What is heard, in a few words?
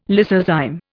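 "lysozyme", Indian English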